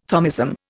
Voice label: "Thomism", Indian

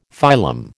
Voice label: "phylum", American

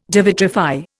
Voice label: "devitrify", Indian

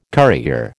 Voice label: "currier", American

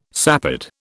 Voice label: "sapid", British

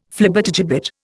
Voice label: "Flibbertigibbet", Indian

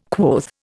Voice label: "quoth", Indian

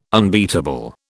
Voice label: "unbeatable", British

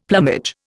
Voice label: "plumage", Indian; Dictionary

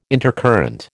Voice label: "intercurrent", American

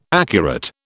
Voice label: "accurate", British

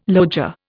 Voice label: "loggia", Indian

